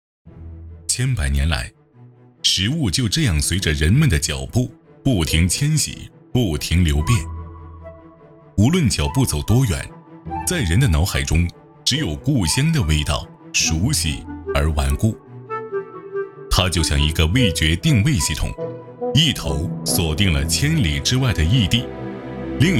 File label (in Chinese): 男 食物的味道; 舌尖